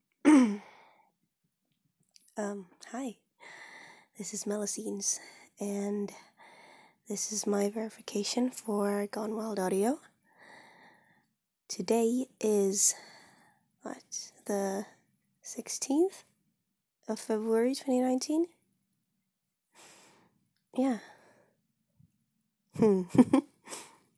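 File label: Verification Request, female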